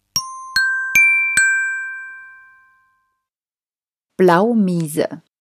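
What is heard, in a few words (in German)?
"Blaumiese", German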